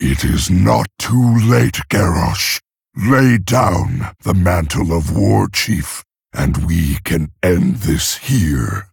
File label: Male Orc Intro; Thrall; Garrosh Mission8Intro